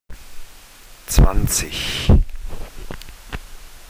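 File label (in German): "zwanzig", German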